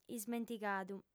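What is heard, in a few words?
"ismentigadu", female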